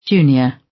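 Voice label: "junior", British; Study, education, research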